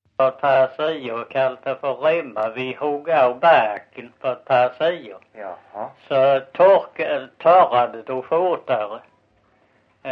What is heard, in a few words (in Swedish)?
Visseltofta SK, dialekter; Folkminnen; Svenska sagor